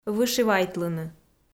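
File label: "вышивайтлыны", Komi, female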